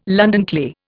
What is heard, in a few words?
"London clay", Indian